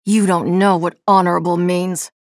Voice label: chatter negative; nyx; respawn